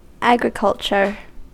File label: "agriculture", us